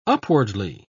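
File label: "upwardly", British English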